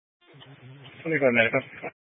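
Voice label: Sheriff Dispatch, Scanner audio recording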